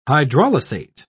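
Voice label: "hydrolysate", British English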